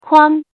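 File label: "kuang", pinyin, first tone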